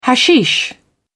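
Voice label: "hashish", British English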